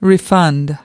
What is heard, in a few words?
"refund", us